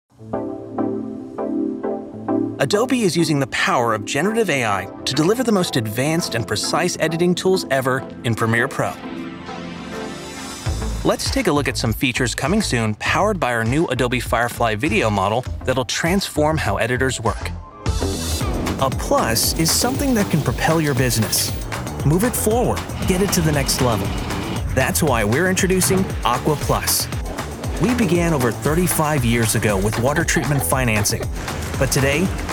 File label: men commercial